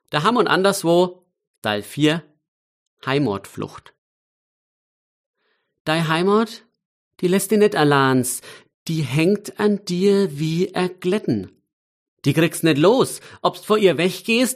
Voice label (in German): Mundart